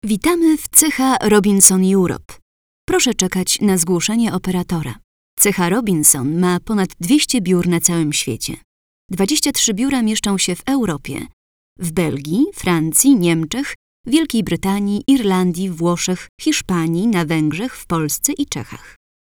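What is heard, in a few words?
polish female voice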